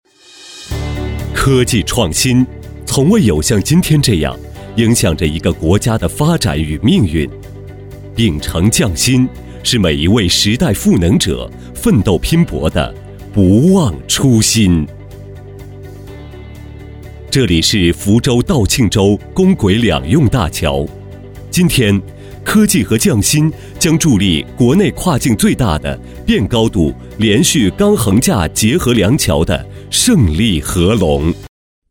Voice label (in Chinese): 大气专题; 桥梁